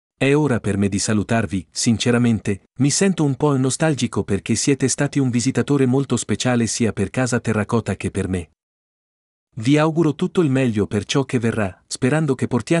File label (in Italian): Italiano